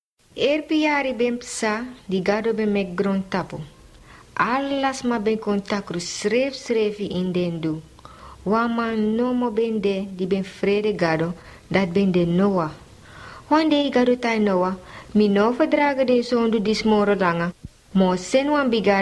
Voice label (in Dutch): Sranan Tongo